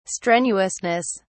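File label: "strenuousness", English, British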